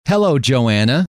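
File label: hello, male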